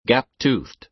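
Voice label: "gap-toothed", American English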